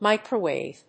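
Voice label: "microwave", English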